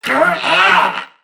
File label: Struggle Crewmen; Dialog; Barks; Battle; Warframe; CorpusCrewmen; CrewmanD